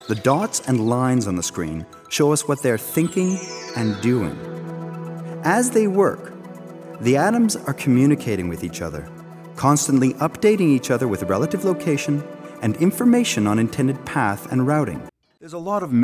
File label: explainer